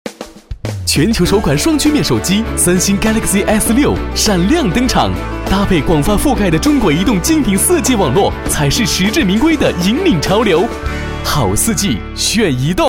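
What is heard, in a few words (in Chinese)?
男国大音-广告; 中国移动、手机类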